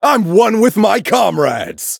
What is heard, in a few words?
victory, en